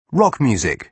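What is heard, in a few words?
"rock music", British English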